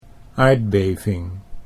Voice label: "aardbeving", Dutch